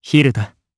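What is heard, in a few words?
voice Story1, voices heroes, Japanese; Siegfried; kingsraid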